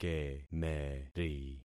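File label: "kemeri", concatenated syllables; Affixing experiment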